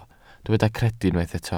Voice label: "credu" Welsh

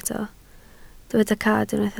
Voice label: "cad", Welsh

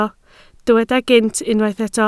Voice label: "gynt", Welsh

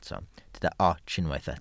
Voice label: "ots", Welsh

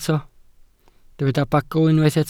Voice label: "bagl", Welsh

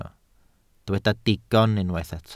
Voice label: "digon", Welsh

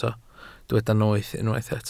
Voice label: "noeth" Welsh

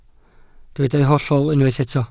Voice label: "hollol" Welsh

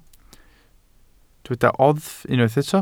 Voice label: "oddf" Welsh

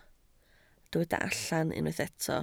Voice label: "allan", Welsh

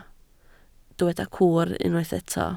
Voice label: "cwr" Welsh

